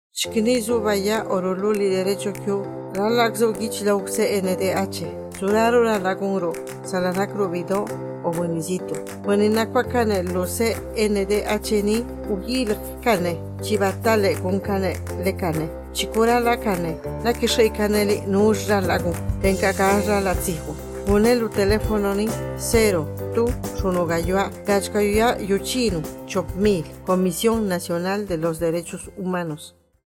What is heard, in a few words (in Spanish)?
Spot Zapoteco; Campanas; CNDH